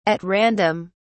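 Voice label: "at random", British English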